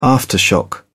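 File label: "aftershock", British English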